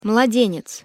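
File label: "mladenec", Russian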